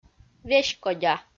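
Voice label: "веськодя", Komi, female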